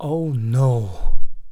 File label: Male Voice "Oh No"